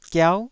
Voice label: "giau9", Taiwanese syllable